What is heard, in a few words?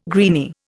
"greeny", Indian